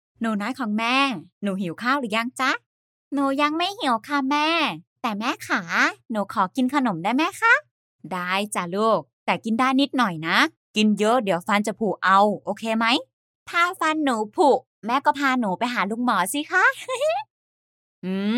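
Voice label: TH Childs voice, Thai; GoLocalise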